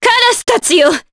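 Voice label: Vox Skill, voices, Japanese; Maria; kings raid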